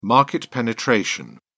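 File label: "market penetration", English, British English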